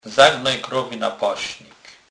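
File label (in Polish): "zagonec", Kurpie dialect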